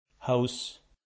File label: "house", Standard Canadian accent